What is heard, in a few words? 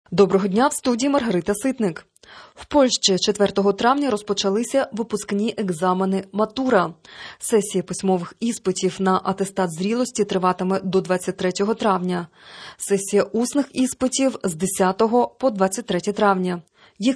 Ukrainian Evening News